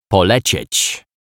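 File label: "poleciec", Polish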